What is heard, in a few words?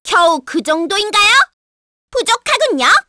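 Vox Skill, Korean; Hanus; kingsraid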